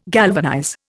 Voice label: "galvanize", Indian